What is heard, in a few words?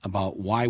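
"abouQ why", American English; əbaʊʔwaɪ; about why (AE); GLOTTAL STOPS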